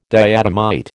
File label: "diatomite", American